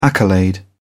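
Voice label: "accolade", British English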